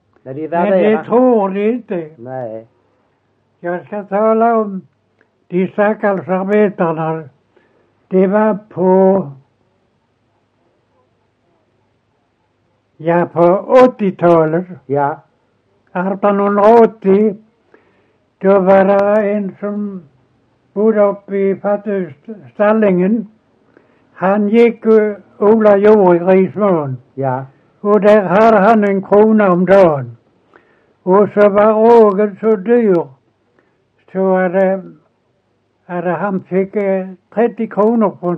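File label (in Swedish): Ekeby Skåne, Svenska sagor dialekter; Folkminnen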